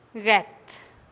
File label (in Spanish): "get" tortilla, teotitlan